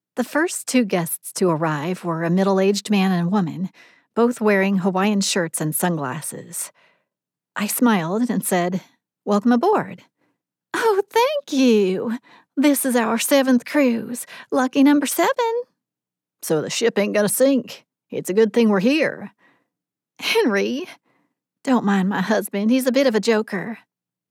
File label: Accent Reel